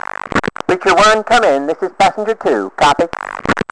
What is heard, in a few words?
chat